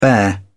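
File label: "bear", English, British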